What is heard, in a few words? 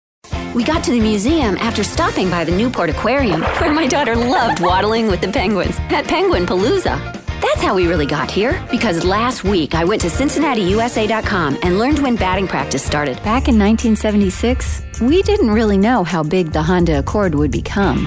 Commercial